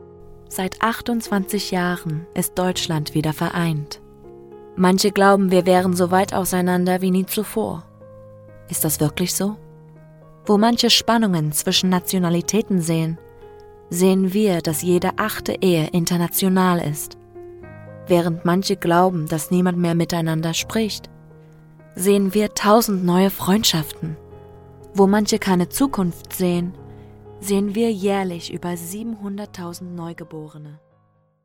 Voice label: German